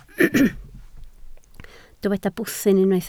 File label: "bwthyn", Welsh